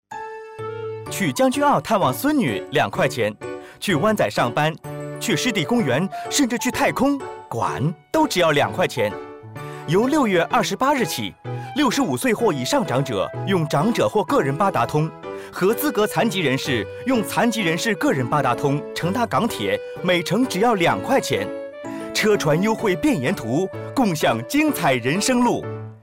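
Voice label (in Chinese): Mandarin Radio; 政府長者及合資格殘疾人士公共交通票價優惠計劃 (二元優惠計劃); fare concession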